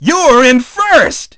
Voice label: dj rank up 1st; DJ VOICE; Nintendo; July 2020 Gigaleak

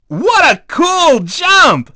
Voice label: dj jump nice; DJ VOICE; Nintendo; July 2020 Gigaleak

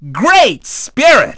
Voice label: dj goal; DJ VOICE; Nintendo; July 2020 Gigaleak

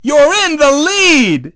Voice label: dj rank up 1st; DJ VOICE; Nintendo; July 2020 Gigaleak

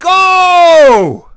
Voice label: dj signal, DJ VOICE; Nintendo; July 2020 Gigaleak

